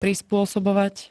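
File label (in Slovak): "prispôsobovať", slovenské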